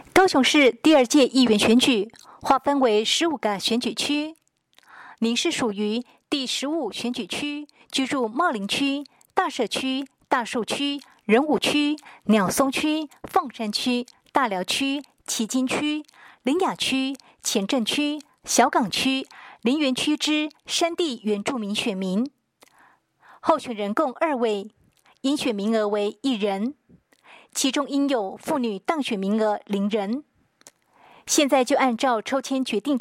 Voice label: 國語; 高雄市; 高雄市市長議員有聲選舉公報; 第十五選區

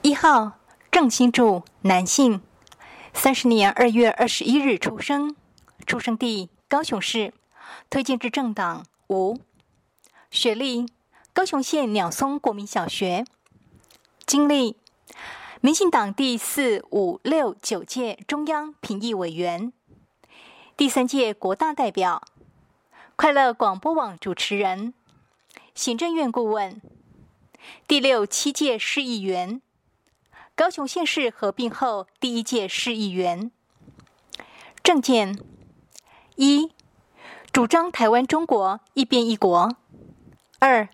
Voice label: 國語; 第七選區

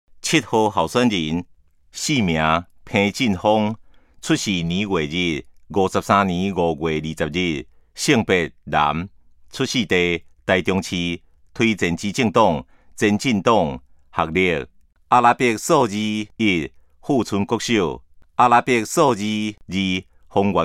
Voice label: 台語; 臺中市; 有聲公報; 市議員; 第08選區

